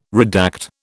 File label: "redact", British